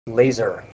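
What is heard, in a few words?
"laser", English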